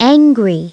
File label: angry; SoftKey